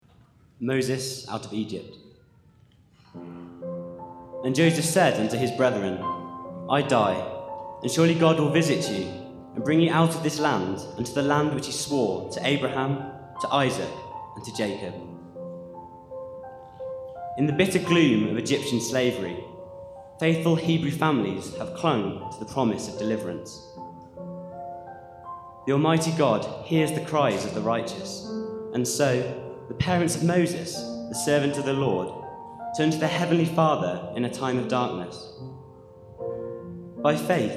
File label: Narration